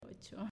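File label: "Ocho", Spanish, female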